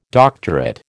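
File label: "doctorate", American English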